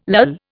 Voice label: "lull", Indian